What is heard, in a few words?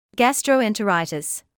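"gastroenteritis", British English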